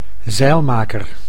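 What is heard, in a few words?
"zeilmaker", Dutch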